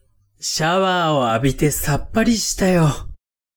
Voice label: man